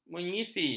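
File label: "menyisi", Malay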